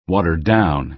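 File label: "watered-down", American English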